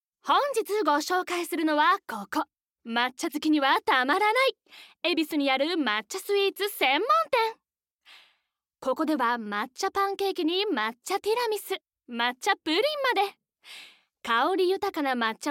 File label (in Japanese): ナレーション グルメ; ナレーション②グルメ